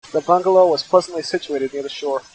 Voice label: Enhanced Speech White Noise

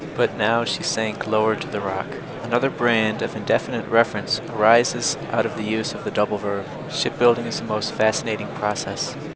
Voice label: babble 5dB pevd

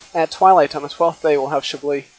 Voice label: Enhanced Speech White Noise LogMMSE 0dB SNR

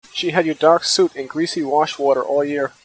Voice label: Enhanced Speech White Noise

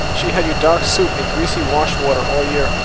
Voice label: Noisy Speech F16 Noise

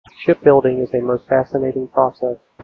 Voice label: Enhanced Speech White Noise SNR 10 dB

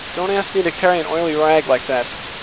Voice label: Noisy Speech White Noise LogMMSE 10dB SNR

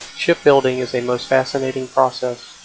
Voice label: Enhanced Speech White Noise LogMMSE 0dB SNR

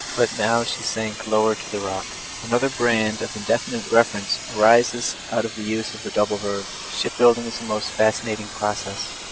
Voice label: white 5dB mwf

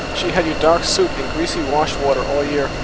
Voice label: Noisy Speech F16 Noise

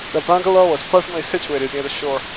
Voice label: Noisy Speech White Noise

